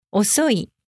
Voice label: "osoi", japanese